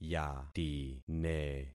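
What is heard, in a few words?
"yatine", concatenated syllables; Affixing experiment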